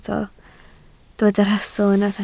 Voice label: "rhastl", Welsh